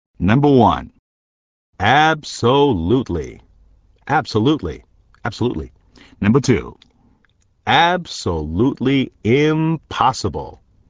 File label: English Sentences